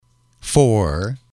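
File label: "four", english, male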